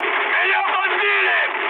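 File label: Russian aircraft destroyed mood high, speech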